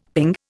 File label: "ping", Indian; Dictionary